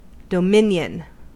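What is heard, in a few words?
"dominion", US English; pronunciations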